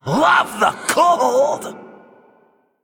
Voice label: Male Elemental